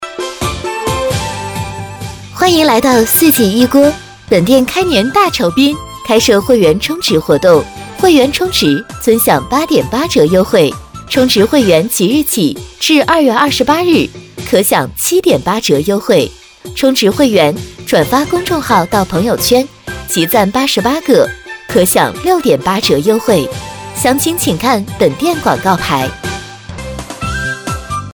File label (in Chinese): 激情促销; 四季一锅